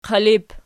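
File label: "qilip" nominative, Archi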